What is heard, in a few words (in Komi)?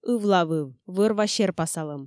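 ывлавыв вӧр-ва серпасалӧм, Komi, female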